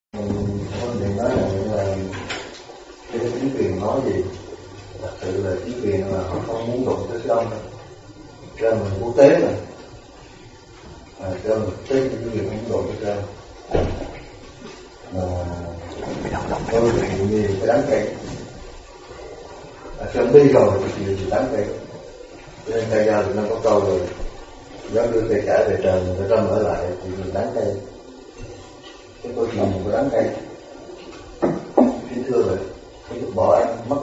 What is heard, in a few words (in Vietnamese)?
Tieng Noi Cua Thay